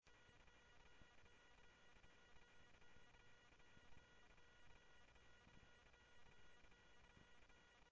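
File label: Sheriff West County, scanner audio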